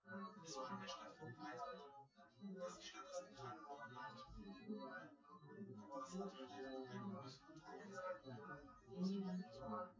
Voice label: male synth conv 250 ms 5 cm